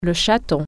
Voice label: kitten, french

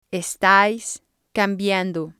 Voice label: "estais cambiando", spanish

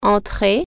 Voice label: enter, french

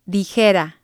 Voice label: "dijera", Spanish

